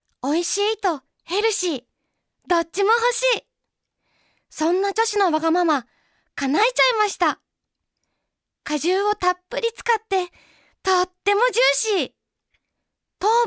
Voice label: Narr